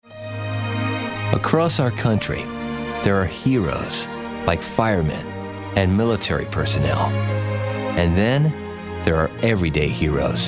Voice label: caring